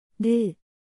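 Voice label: "느", Korean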